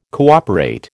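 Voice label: "cooperate", American English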